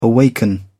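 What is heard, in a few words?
"awaken", British English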